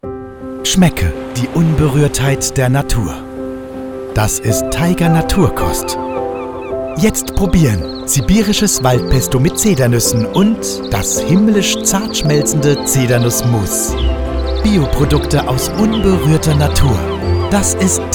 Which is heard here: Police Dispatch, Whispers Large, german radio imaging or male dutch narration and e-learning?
german radio imaging